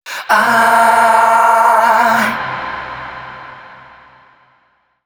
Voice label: male 130, Dance Ultra Voice adlips; Mutekki Media; Dance Ultra Voice VOL-1